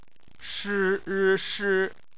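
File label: "shi", Mandarin Chinese; phonetics; bpmf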